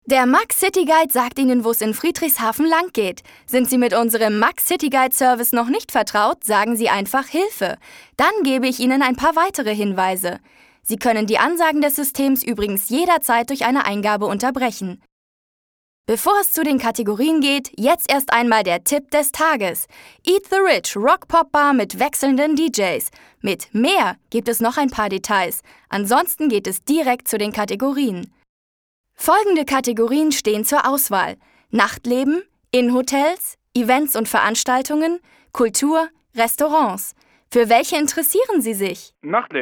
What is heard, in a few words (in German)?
telefon, deutsch frauen